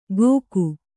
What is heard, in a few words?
"ಘೋಕು", Kannada